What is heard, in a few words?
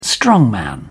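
"strongman", British English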